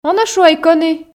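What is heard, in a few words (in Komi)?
он-ӧ шуӧй кӧні, Komi, female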